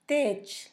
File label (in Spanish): espalda, Zapoteco; Cuerpo; San Pedro Mártir; INALI